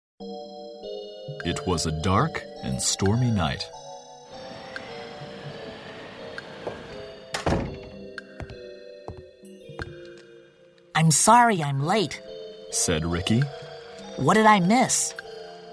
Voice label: character; USA